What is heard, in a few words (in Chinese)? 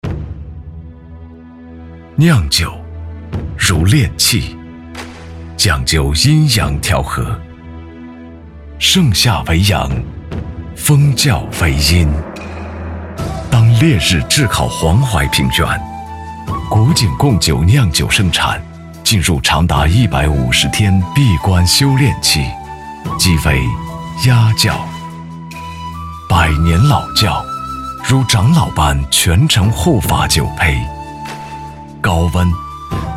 男 专题; 古井贡酒